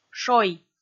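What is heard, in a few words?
"шой", Komi, female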